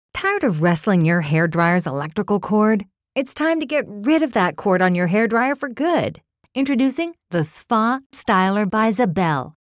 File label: Engaging Conversational; Styler